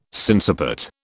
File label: "sinciput", British